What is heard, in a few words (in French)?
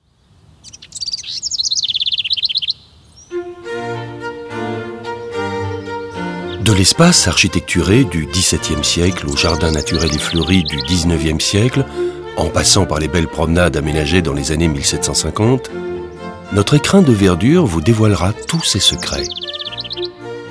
audioguide fr adulte; jardin; modave